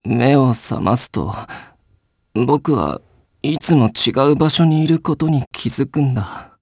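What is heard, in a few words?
voice, Japanese; Ezekiel; kingsraid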